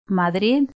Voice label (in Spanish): "Madrid", Cuba, Pinar del Río femenina; spansk; fonetica